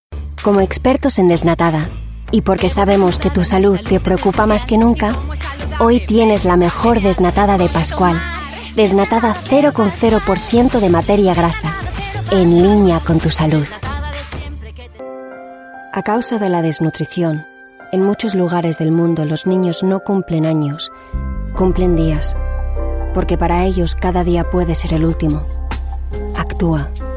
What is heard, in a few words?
Spanish